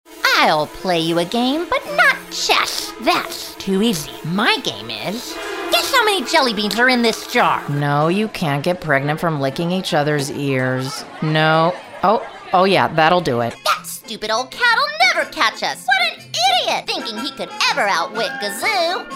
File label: animation